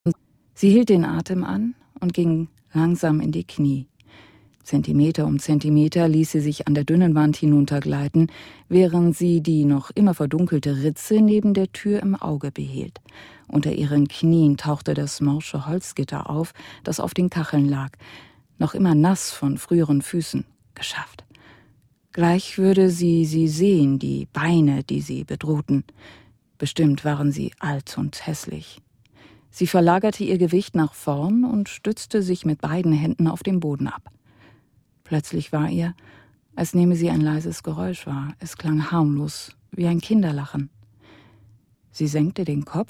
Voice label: lesung, deutsch frauen; sprecher

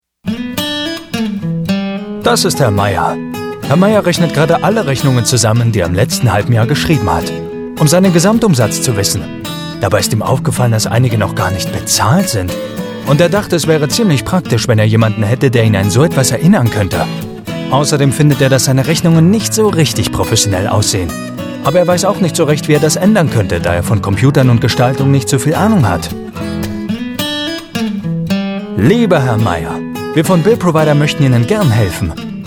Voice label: de erklaerspot, deutsch maenner; sprecher

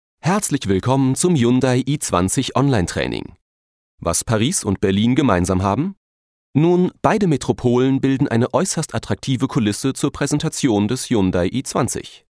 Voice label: de ELearning, deutsch maenner; sprecher

